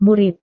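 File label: "murid", Indonesian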